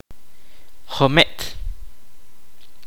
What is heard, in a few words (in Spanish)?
"corteza", Kaqchikel